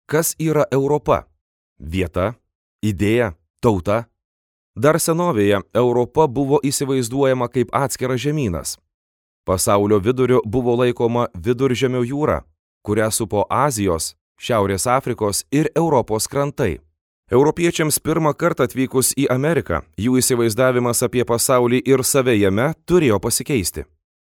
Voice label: male lithuanian voice over talent narrative

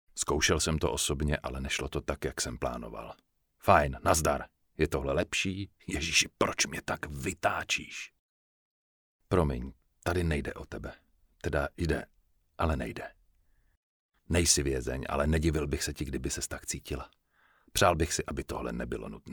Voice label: male czech voice over character

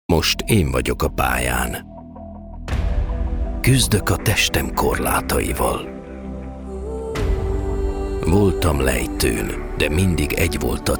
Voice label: male hungarian voice over talent sales

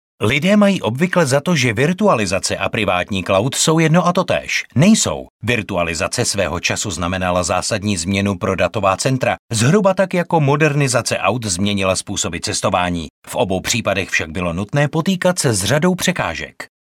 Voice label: male czech voice over sales

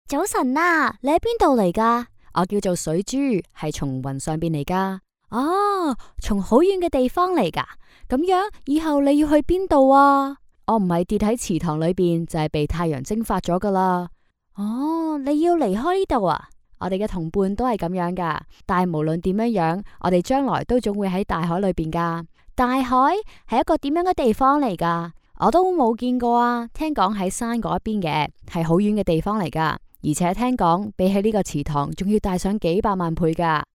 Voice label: female cantonese voice over character

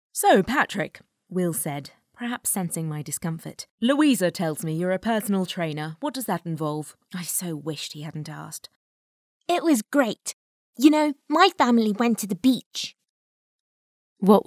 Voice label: female british voice over character; english british

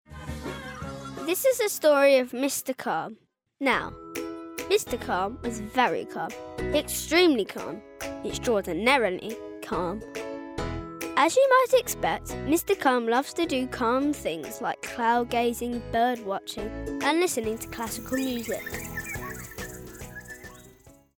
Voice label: boy british voice over narrative; english british

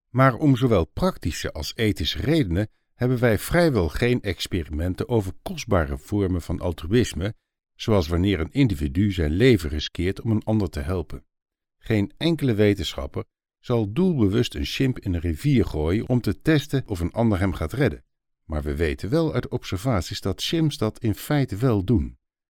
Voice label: male dutch voice over talent narrative

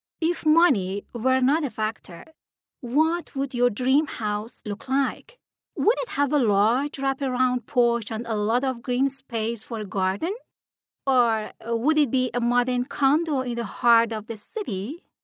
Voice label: female farsi voice over english

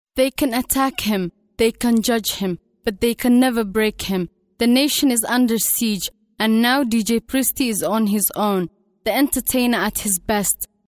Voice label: female somali voice over talent english

